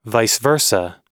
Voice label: vice versa, us; en